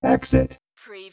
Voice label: Robot voice "exit"; lynne publishing

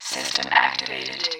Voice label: Robot voice, "system activated"

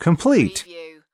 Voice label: "complete", US male; lynne publishing

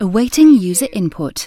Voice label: UK female awaiting user input; lynne publishing

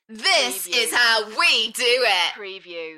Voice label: UK female, Enthusiastic; beanstalk audio

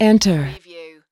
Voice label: US female, "enter"; lynne publishing

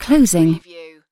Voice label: UK female closing; lynne publishing

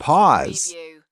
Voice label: "pause", US male; lynne publishing

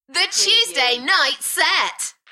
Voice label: UK female The tuesday night set Enthusiastic; beanstalk audio

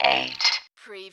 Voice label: Robot voice "8"; lynne publishing

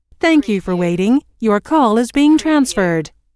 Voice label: US female phone prompt; Thank you for waiting - Your call is being transferred

